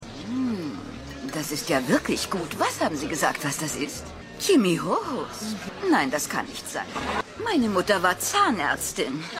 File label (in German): Frau